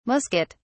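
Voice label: "musquet", British English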